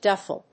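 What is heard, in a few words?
"duffle", English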